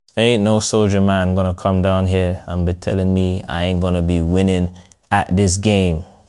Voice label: upset; fertig classic games